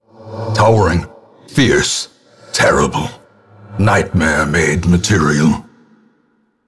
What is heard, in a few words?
Narration; Darkest Dungeon; boss